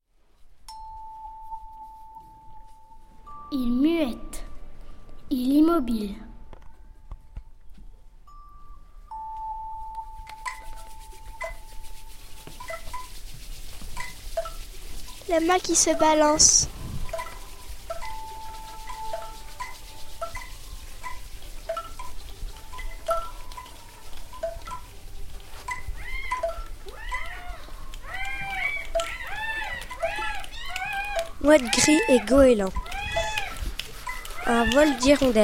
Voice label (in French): ambiance mer poemes classe